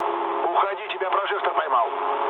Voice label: searchlite, mood low, speech ru; War Thunder